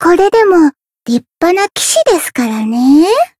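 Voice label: Voice win Japanese; soira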